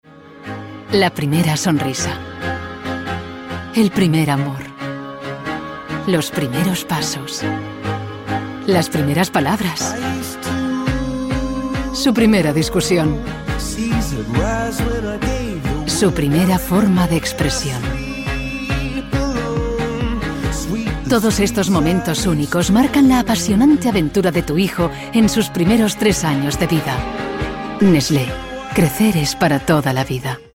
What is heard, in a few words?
female spanish food comercial; ideasonora